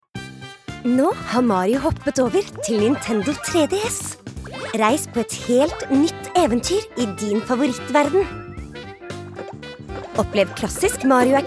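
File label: Norwegian commercial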